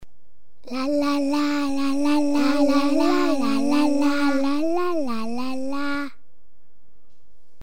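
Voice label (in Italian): Coro per voce sola (multitrack), Frase modificata; I bambini, con l'aiuto dell'insegnante, registrano e rielaborano la propria voce al comput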